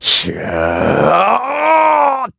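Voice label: Vox Casting, Korean; Clause ice; kingsraid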